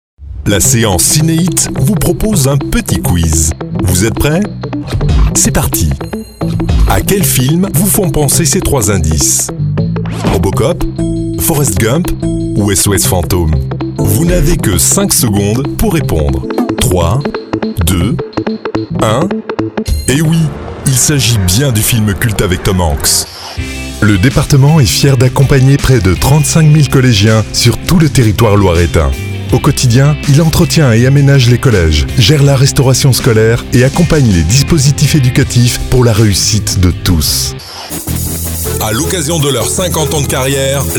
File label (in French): French